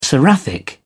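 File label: "seraphic", British English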